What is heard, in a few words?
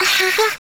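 turret disabled, German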